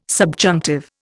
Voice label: "subjunctive", Indian